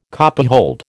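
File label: "copyhold", American English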